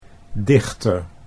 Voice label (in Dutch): "dichter", Dutch